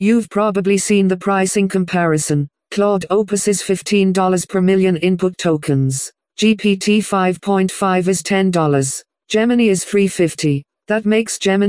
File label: voiceover